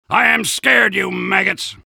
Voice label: soldier scared; tf2

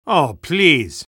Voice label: spy negative vocalization; tf2

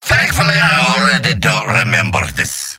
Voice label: jeers; demoman; mvm

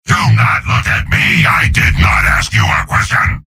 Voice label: soldier taunts; tf2; garrysmod; mvm

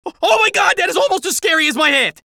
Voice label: scared; haunthat

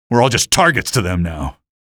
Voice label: cit alert soldier, male; Garry's Mod

